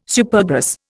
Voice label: "Supergrass", Indian

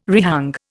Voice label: "rehang", Indian

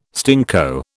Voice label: "stinko", British

